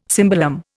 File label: "cimbalom", Indian